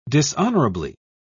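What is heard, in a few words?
"dishonorably", British English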